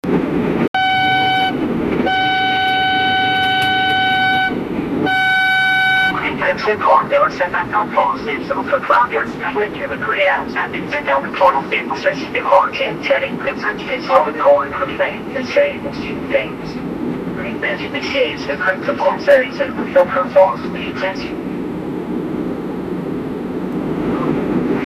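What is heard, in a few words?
old japanese cockpit voice, alarms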